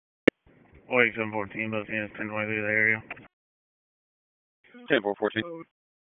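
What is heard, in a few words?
Sheriff's Office Dispatch 1, p25; WSO DISP 1; wake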